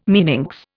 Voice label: "meninx", Indian